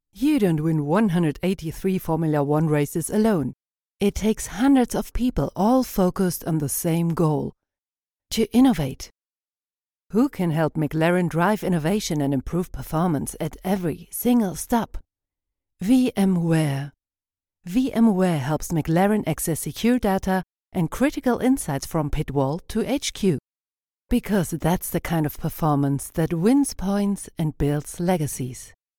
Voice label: english commercial